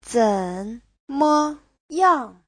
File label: "zen3me5yang4", Chinese